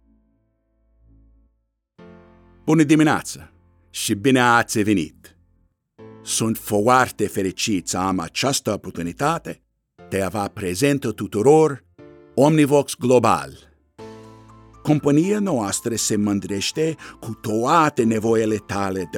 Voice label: Romanian Language; Omnivox

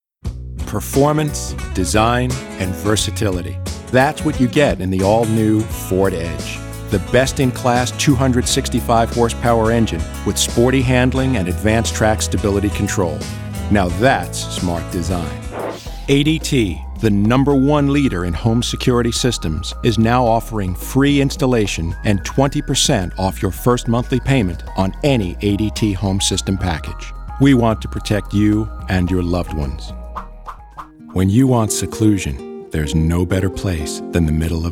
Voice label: English Commercials; Omnivox

